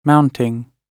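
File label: "mounting", British English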